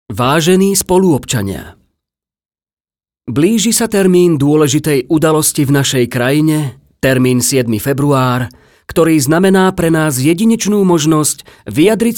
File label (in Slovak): Referendum hlasenie pomale